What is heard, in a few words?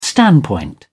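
"standpoint", British English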